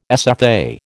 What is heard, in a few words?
"SFA", American